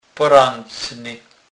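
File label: "porecny", Polish, Kurpie dialect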